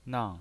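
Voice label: "nang", pinyin voice, Mandarin Chinese, fourth tone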